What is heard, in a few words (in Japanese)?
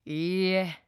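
"いいえ", Japanese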